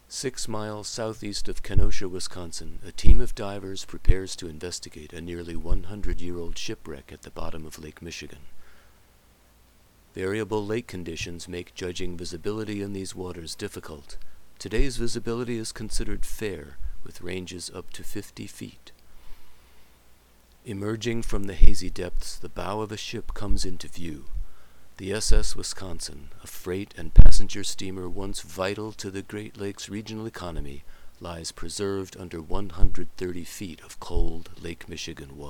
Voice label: Narrator; Shipwrecks